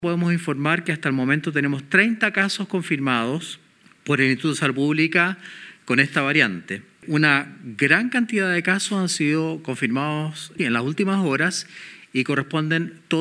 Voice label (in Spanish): cuña; PARIS